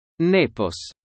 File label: latin